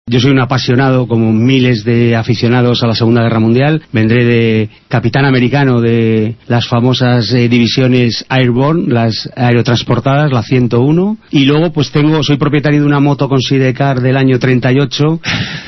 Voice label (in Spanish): noticias